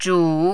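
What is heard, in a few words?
"zhu3", mandarin, dipping tone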